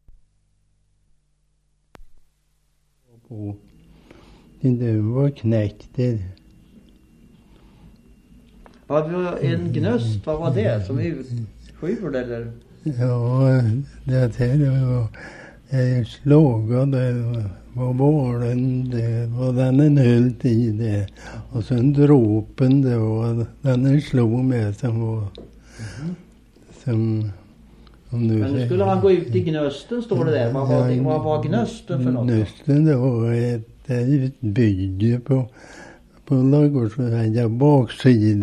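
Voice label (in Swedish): Vadstena Östergötland, dialekter; Folkminnen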